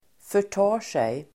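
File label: "förtar sig", Swedish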